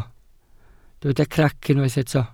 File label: "crac", welsh